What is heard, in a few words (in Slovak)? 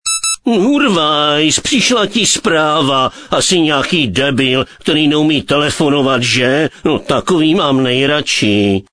sms hlásky cz